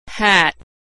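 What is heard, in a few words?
"hat", American English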